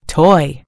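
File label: "toy", British English; gcompris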